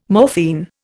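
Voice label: "morphine", Indian English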